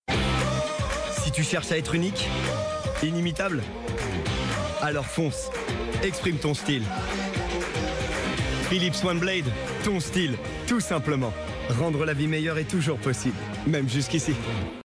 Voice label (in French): publicite,voix-off; philips one blade funkystache barbe oklm ton style tout simplement